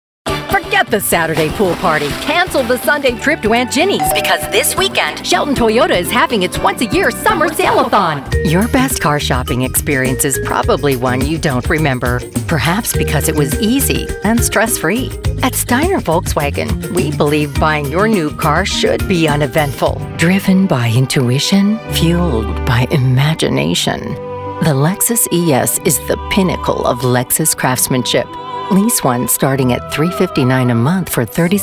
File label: Automotive, femalevoices